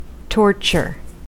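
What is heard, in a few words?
"torture", American English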